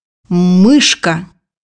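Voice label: "mouse", Russian; bukvar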